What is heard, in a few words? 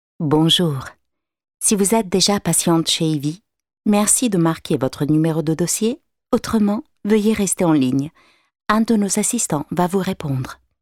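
italian interactive voice response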